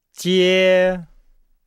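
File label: "jie", Chinese; Nihao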